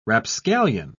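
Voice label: "rapscallion", British English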